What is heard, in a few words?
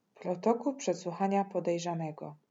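female statement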